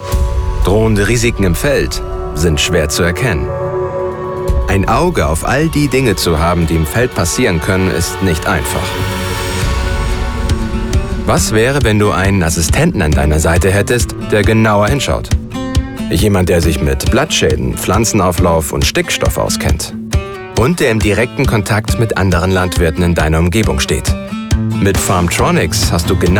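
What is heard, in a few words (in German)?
Werbung Imagefilm, Deutsch; Farmtronics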